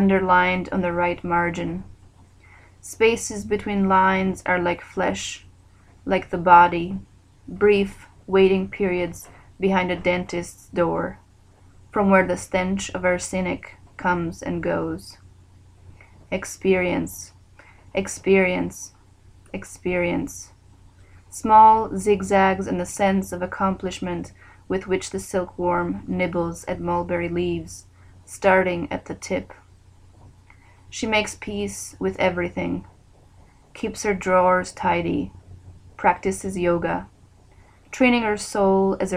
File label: Narration 3rd Person